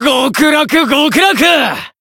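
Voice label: healed, Japanese; shigure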